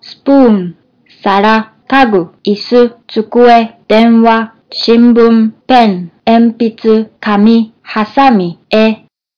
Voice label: japanese objects